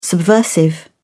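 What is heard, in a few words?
"subversive", British English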